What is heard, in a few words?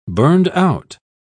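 "burned-out", British English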